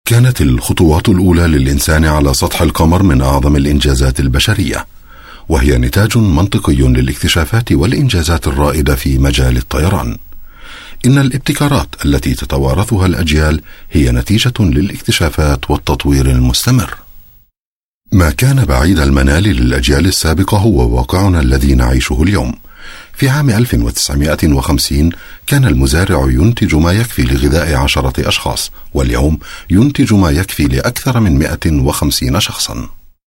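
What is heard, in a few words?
male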